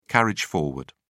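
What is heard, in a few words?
"carriage forward", English, British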